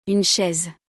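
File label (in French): "une chaise", French; vocabulaire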